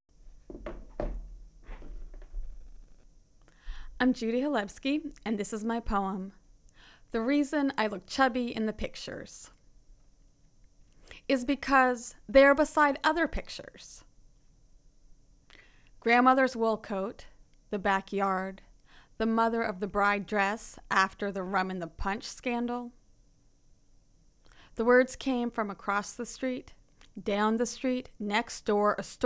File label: poetry mix; poet in residence